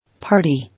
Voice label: "Party", English